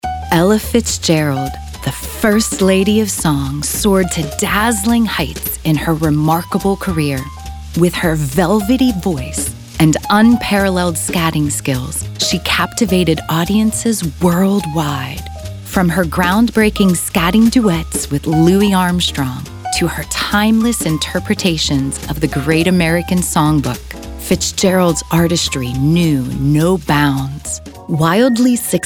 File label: Narrative